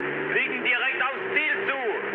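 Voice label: German near waypoint mood high, speech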